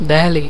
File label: Delhi pronunciation; india